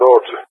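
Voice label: "Dodge", English, GREECE; Allied Flight; CallSign; DCS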